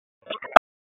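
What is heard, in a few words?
Raleigh Police North District Tactical; wake p25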